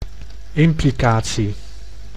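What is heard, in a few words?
"implicatie", Dutch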